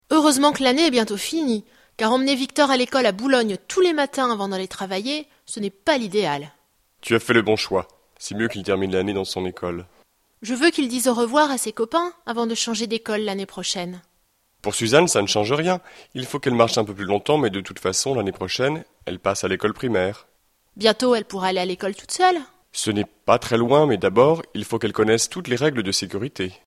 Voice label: dialogue, French